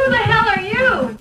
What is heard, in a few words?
"who the hell are you", female; Voice